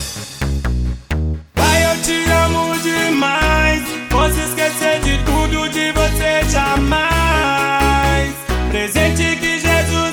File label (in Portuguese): spot